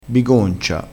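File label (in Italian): "bigoncia", dialettale crespinese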